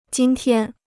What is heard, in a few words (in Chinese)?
"今天", Chinese